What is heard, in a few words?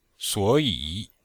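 "suo3yi3", Chinese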